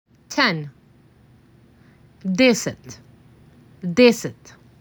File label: MAC 6, macedonian phrasebook; the talking macedonian phrasebook